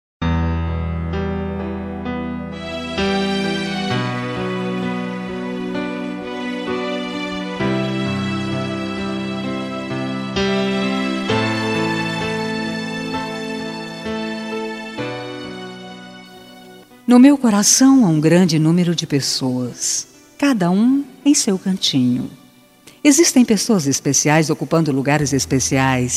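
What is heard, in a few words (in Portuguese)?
aniversario TIO FEM, telemensagem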